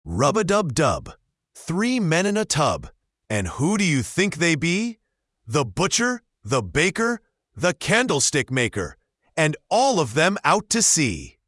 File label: male US, classic rhymes